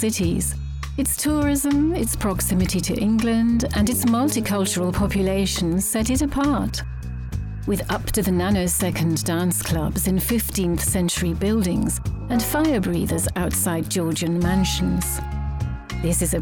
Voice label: Edinburgh voice